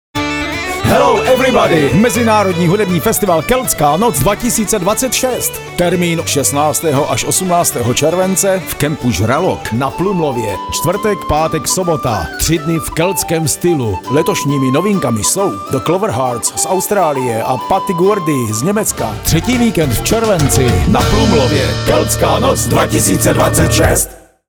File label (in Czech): radiospot